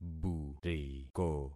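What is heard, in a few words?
"buriko", concatenated syllables; Affixing experiment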